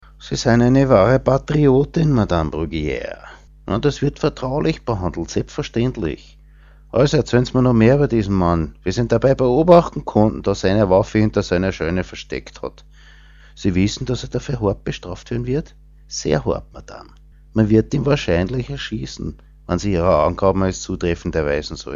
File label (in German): Dialekt Wienerisch